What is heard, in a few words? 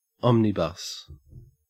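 "omnibus", Australian English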